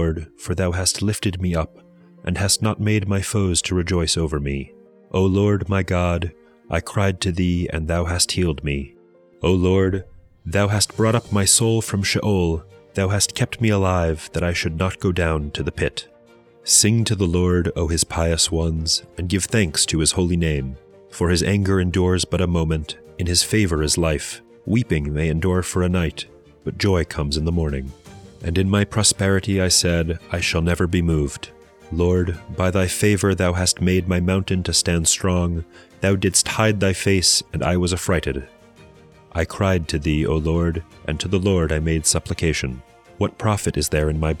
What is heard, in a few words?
poetry and prayer psalm english